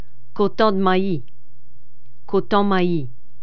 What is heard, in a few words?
cajun french